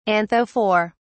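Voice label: "anthophore", British English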